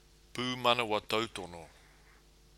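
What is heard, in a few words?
"pumanawa tautono", Māori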